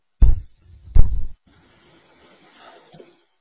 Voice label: VoiceActing es